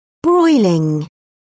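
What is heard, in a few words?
"broiling", British English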